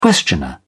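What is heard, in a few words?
"questioner", British English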